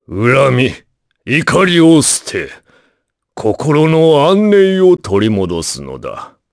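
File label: voice, Japanese; Kaulah; kingsraid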